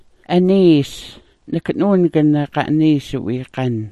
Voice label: "aniis", Smalgyax